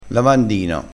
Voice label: "lavandino", crespinese, vocabolario dialettale

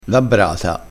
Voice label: "labbrata", crespinese, vocabolario dialettale

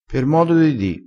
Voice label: "per modo di di", crespinese

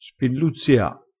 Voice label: "spilluzzia", crespinese

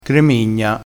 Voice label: "gremigna", vocabolario dialettale

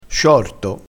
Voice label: "sciorto", dialettale

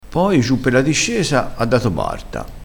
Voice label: "da barta", crespinese, vocabolario dialettale

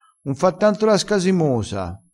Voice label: "scasimoso", crespinese

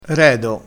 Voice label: "redo", crespinese, vocabolario dialettale

